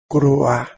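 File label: "krouh2", Proto-Indo-European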